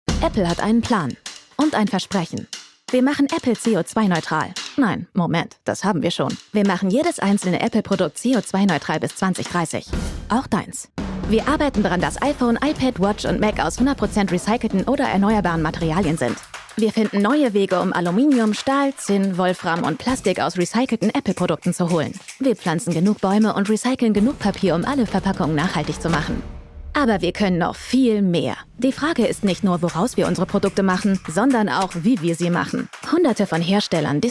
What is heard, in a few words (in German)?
Werbung Klimaneutral; Apple